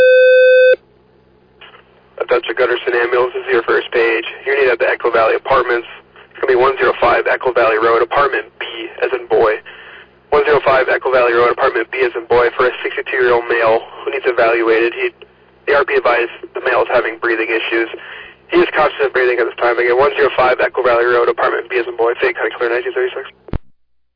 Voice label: Ambulance, dispatch